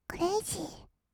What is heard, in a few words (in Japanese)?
"クレイジー", kawaii voice; 音ゲー